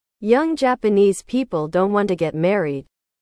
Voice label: english